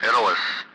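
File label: "radar", Russian; Speech; Target; Allied Flight; DCS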